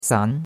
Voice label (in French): "san2", chinois; Cours-de-Chinois